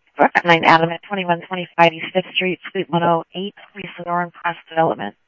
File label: Tempe Police, scanner audio